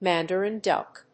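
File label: "mandarin duck", English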